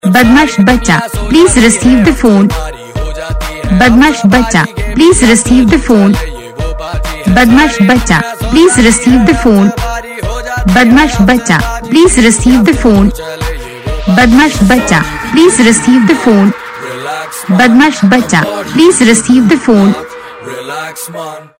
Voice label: Badmash Bachcha receive phone saying